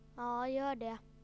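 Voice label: ingressive egressive ja gor det child male; JIPA 2008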